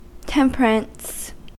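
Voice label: "temperance", US English; pronunciations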